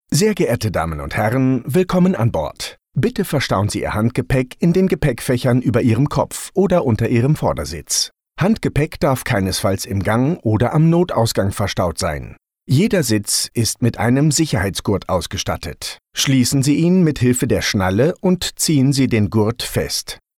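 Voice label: Male German IVR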